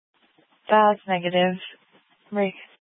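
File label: Sheriff Dispatch, scanner audio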